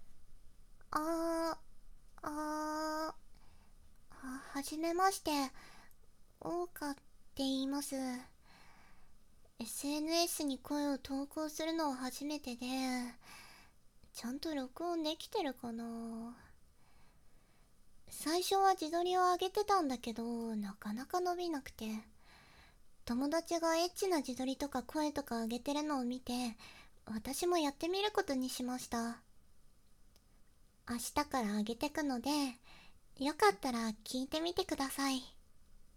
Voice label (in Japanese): 自己紹介, ASMR